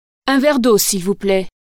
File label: a glass of water please french